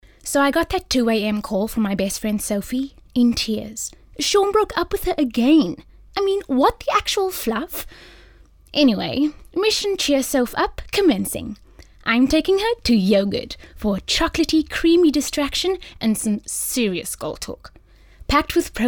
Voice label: Conversational